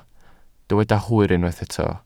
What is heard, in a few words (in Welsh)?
"hwyr", Welsh